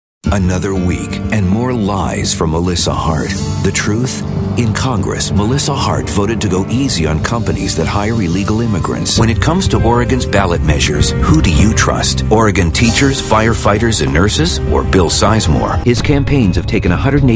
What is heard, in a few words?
Voiceover, Political, Men; chicago